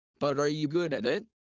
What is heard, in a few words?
low; studying abroad